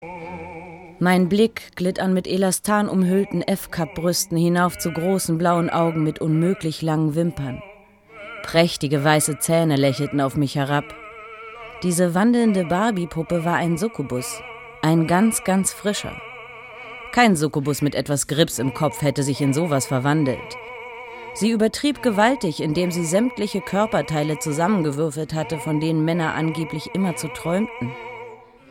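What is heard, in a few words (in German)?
Hörspiel Feature; SWR2; Supersuccubus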